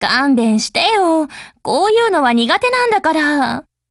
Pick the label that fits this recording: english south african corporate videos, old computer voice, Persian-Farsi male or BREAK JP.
BREAK JP